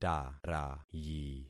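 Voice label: "tarayi", concatenated syllables; Affixing experiment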